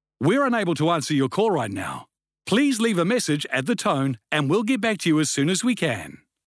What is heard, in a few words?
NZ Male Voice Phone